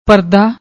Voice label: "pardaa", Punjabi

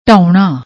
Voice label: "ਢਾਹੁਣਾ", Punjabi

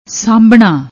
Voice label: "saambna", Punjabi

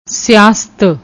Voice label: "siast", Punjabi; ਸਿਆਸਤ

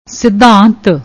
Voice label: "sidhant", Punjabi; ਸਿਧਾਂਤ

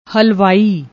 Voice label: "hlwaai", Punjabi; ਹਲਵਾਈ

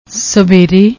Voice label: "savere", Punjabi; ਸਵੇਰੇ

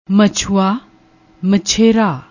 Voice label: "machooaa", Punjabi

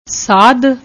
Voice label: "saadh", Punjabi; ਸਾਧ

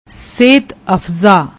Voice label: "sehat afza", Punjabi

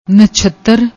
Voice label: "nachattar", Punjabi; ਨਛੱਤਰ

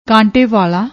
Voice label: "kaante wala", Punjabi; ਕਾਂਟੇਵਾਲਾ

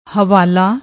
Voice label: "hwala", Punjabi; ਹਵਾਲਾ

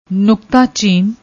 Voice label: "nuktachin", Punjabi

